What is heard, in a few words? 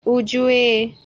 "Ujuye", Ho language; Will come